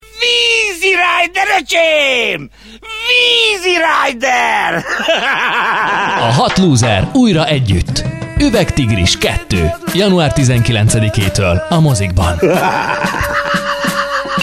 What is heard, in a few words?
radio spot; uvegtigris2